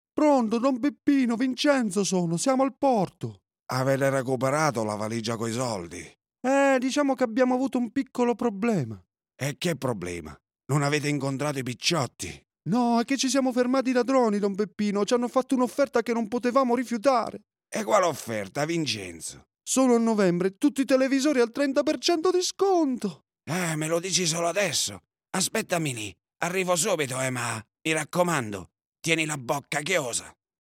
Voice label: MAFIA GANGSTER CHARACTERS